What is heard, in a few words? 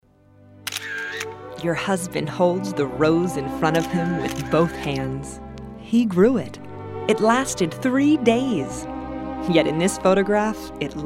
voice-overs; HP Photosmart